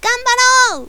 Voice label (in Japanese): "がんばろー", 回復魔法系